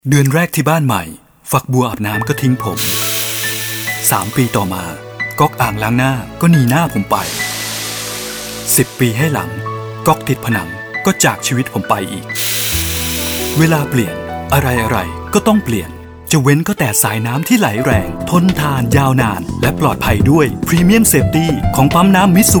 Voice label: Mitsubishi Electric Water Pump, Radio spot